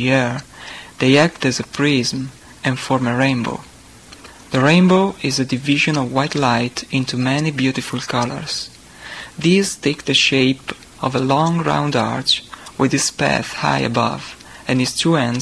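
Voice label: italy, International Dialects of English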